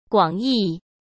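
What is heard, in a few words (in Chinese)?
"广义", Mandarin; chinese-flashcards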